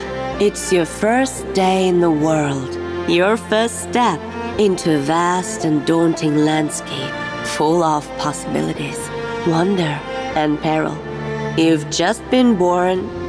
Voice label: narration en